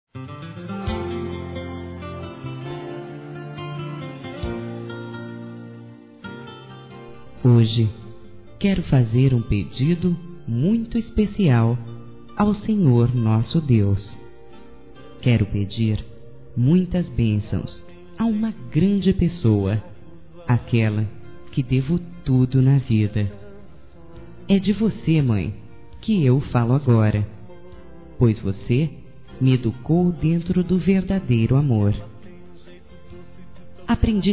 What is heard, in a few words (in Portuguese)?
MÃE F; Telemensagens 2017